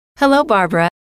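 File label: hello, female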